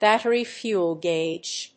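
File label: "Battery Fuel Gauge", English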